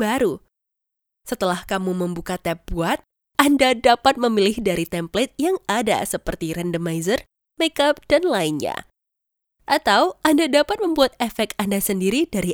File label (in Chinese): Tiktok(操作说明)